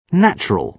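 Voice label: "natural", British English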